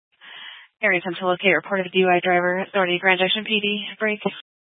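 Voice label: Police Dispatch, scanner audio recording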